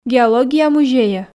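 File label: "геология музейӧ", Komi, female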